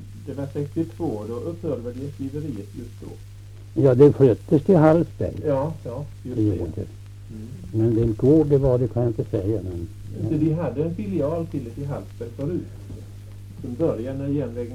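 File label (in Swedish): Kumla Närke, dialekter, Svenska sagor; Folkminnen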